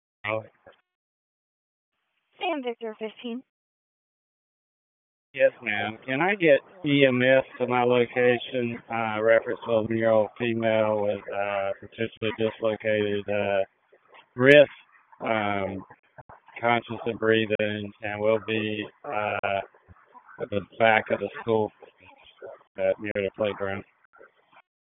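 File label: Raleigh Police Southeast District Dispatch, p25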